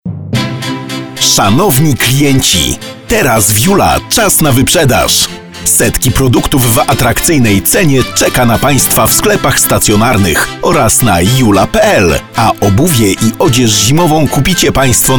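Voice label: Polish, male, commercial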